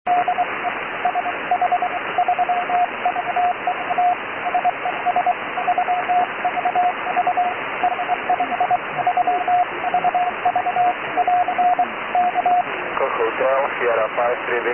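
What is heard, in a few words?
SSB qso, 144 MHz, amateur radio; JN65UM 517; qso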